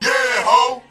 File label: "Yeah Hoe!", Vox